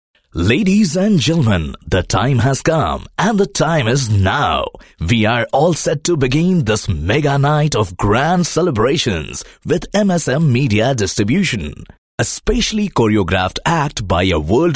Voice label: English, male voice